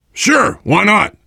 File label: generic responses, voice, biker; Left 4 Dead 2; left4dead2 dlc3; survivor